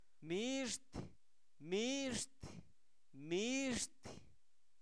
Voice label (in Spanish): gato, Zapoteco, santo domingo albarradas; animales; INALI